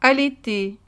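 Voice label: "allaiter", French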